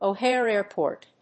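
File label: "O Hare Airport", English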